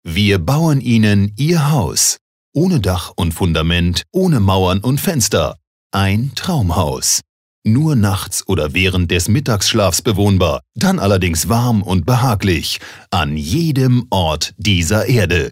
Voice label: Werbung Freundlich

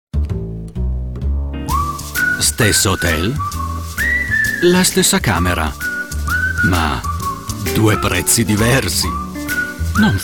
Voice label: IT Werbung Freundlich

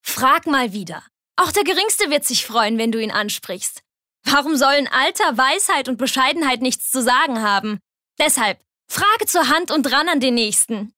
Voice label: Werbung Dynamisch

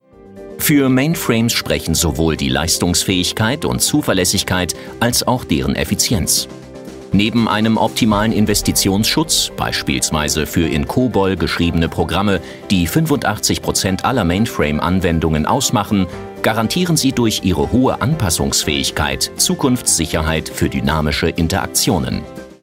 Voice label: Neutral; Fremdtake

